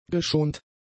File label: "geschont", German; adjectives